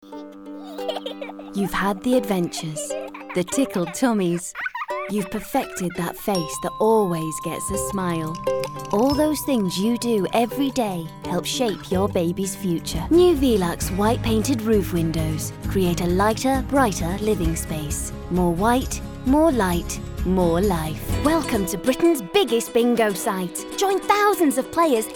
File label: commercial